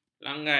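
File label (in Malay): "langgai", Malay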